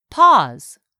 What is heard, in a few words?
"PAUSE", English; 3000 words